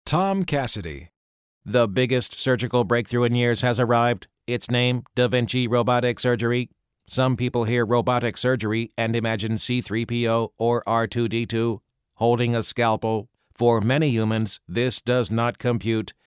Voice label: robot

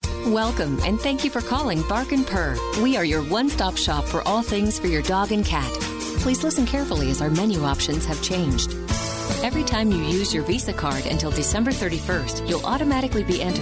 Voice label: On Hold

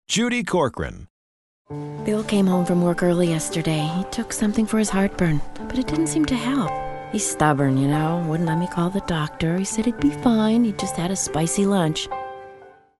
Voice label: CONCERNED

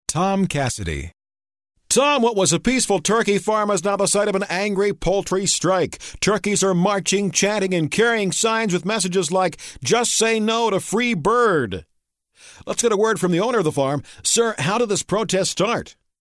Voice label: reporter

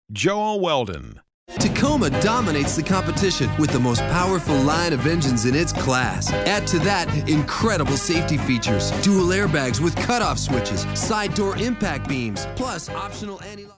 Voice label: upbeat

